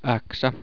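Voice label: "aksa", Finnish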